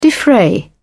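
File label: "defray", British English, dictionary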